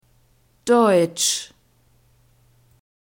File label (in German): "Deutsch", German